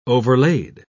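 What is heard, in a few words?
"overlaid", British English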